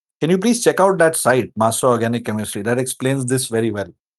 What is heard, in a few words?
voice message